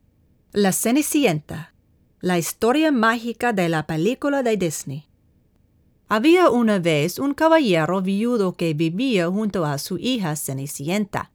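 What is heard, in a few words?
Child Story Spanish; Cinderella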